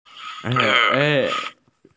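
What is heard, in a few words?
"ke3", Mandarin Chinese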